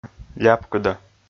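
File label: "ляпкыда", Komi, male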